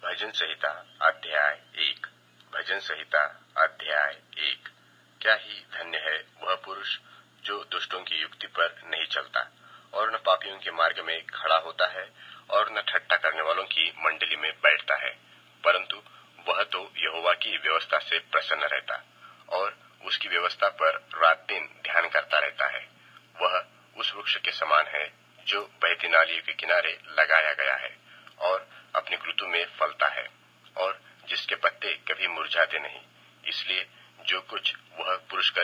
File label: Hindi Bible